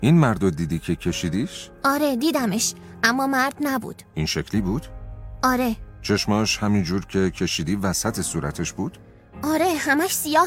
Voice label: narrator